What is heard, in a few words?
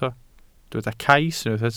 "cais", welsh